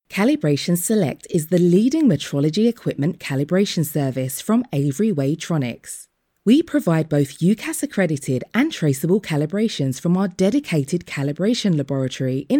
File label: English Voice Over